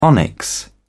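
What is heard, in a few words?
"onyx", British English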